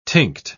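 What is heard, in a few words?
"tinct", British English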